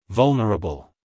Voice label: "vulnerable", us male

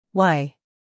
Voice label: "y", us; sounds-of-american-english; everyone-can-use-english

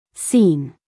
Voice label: "scene", US female; everyone-can-use-english

